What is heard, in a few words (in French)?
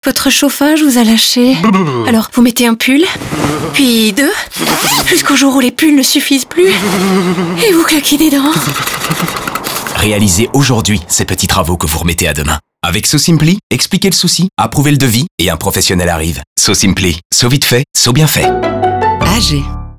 Radio Chauffage, FR; So-Simply